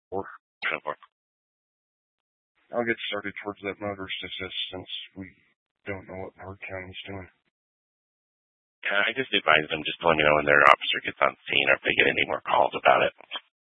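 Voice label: Troop 2A Dispatch, scanner audio recording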